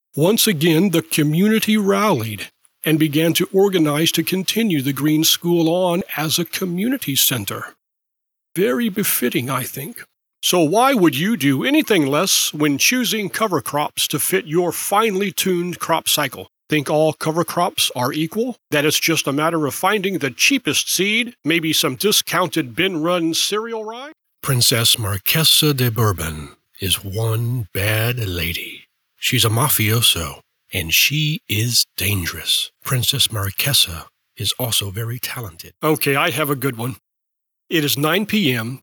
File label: Narration